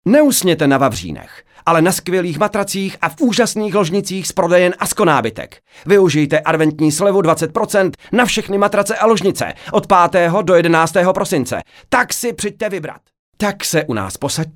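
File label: Czech, male; Professionelle Sprecher und Sprecherinnen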